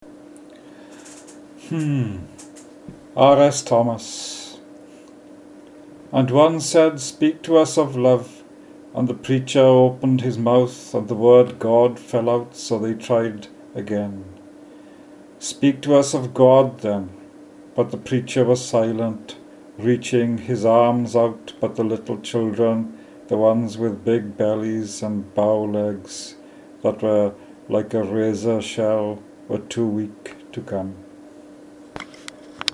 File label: Poetry Reading